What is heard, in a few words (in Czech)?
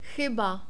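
"chyba", czech, male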